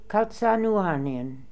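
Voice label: "Kalzanooarnian", pronunciations; Fourth Way; Beelzebub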